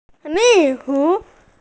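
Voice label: "gift", Mandarin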